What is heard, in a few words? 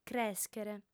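"crèschere", Sardinian, female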